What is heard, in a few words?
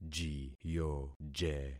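"jiyoje", concatenated syllables; Affixing experiment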